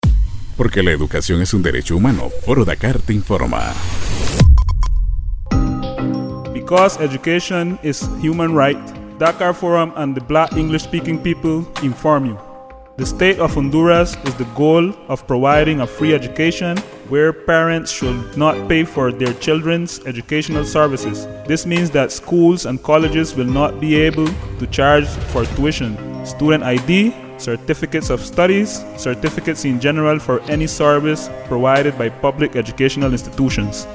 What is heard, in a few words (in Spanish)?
Spot en Ingles; Foro Dakar